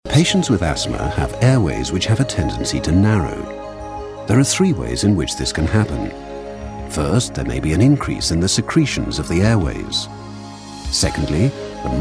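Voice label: English British, male, e learning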